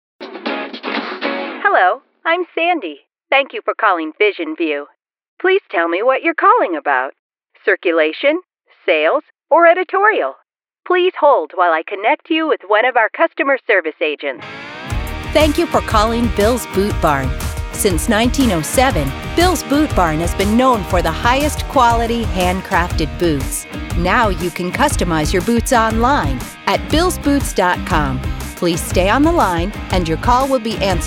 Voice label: Telephony